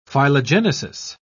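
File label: "phylogenesis", British English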